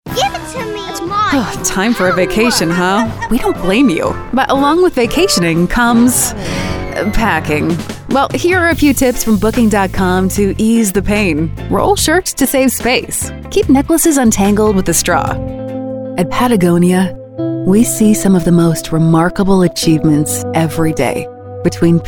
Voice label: women narration